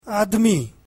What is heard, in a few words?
urdu man